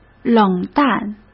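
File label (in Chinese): "冷淡", Mandarin Chinese; hsk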